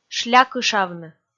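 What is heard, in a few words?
"шлякышавны", Komi, female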